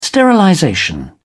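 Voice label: "sterilization", British English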